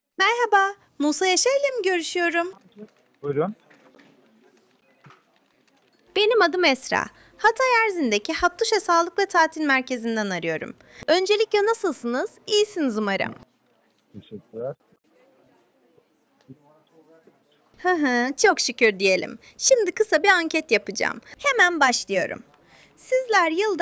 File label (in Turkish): Yapay Zeka Arama, Görüşme Kaydı; Buyurun.